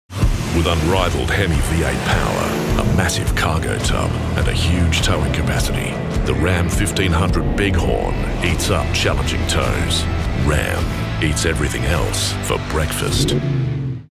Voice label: Australia Voice; Ram 1500 Big Horn